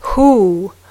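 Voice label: "who", English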